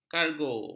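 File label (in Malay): "kargo", Malay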